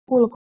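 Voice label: "wlkw" Proto-Indo-European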